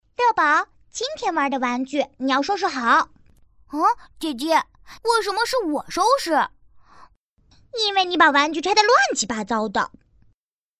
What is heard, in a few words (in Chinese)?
女 动画 动画片; 易宝乐宝样音